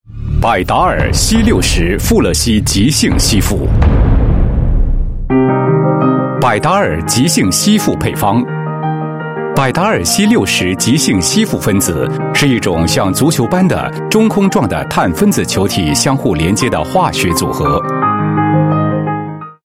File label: Mandarin China Corporate, Male; Bardahl